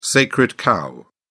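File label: "sacred cow", English, British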